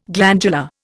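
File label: "glans", Indian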